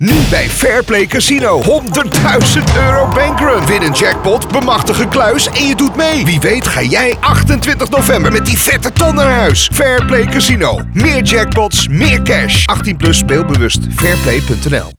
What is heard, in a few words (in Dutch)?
Commercial; fairplay 15 seconden